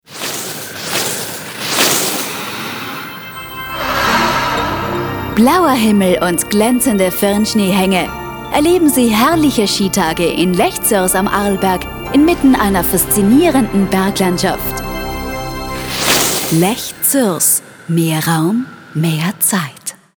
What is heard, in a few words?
Radio Commercial; Spot Lech Zuers 20Sek; Radio Commercial for Liechtenstein